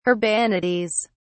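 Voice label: "urbanities", British English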